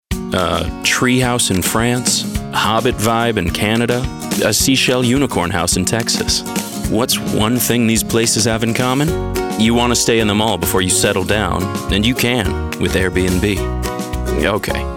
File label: Commercial